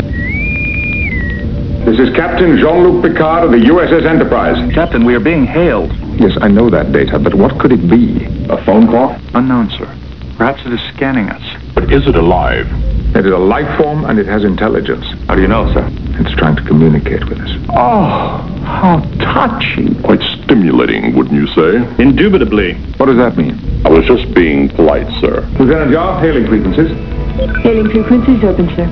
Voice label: Star Trek answering machine